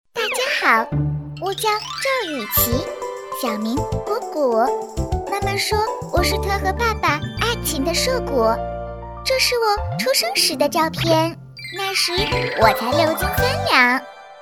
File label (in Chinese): 动画 童声 女童 可爱萌萌哒 自我介绍, 女国